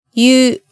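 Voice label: "yu", Japanese syllable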